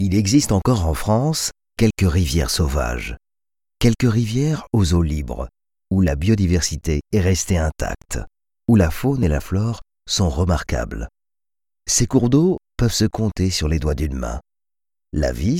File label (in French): french narration